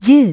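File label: "ten", English; iOS 4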